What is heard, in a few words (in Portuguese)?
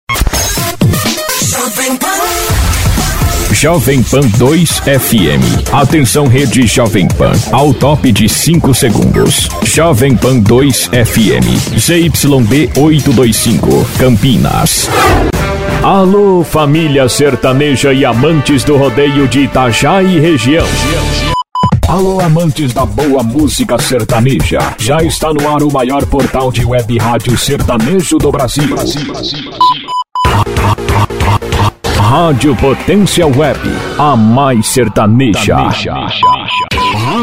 locutor